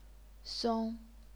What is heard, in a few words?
"song1", chinese pinyin